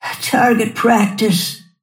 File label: lasthit pain; snapfire